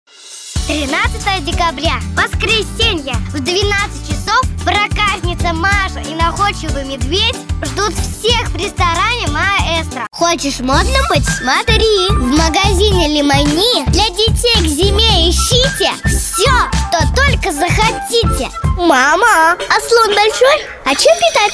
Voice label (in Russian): devochka 9 let